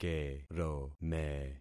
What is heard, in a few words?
"kerome", concat syllables; Affixing experiment